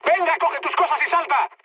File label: bailout, mood high, speech; War Thunder